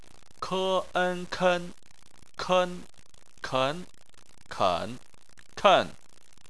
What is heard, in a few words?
"ken", chinese